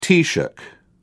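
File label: "taoiseach", British English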